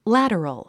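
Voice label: "lateral", English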